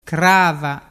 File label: "crava", dialettu